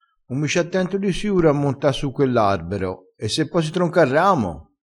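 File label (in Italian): "siuro", vocabolario dialettale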